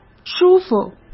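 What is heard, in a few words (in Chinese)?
"舒服", Mandarin; chinese flashcards; hsk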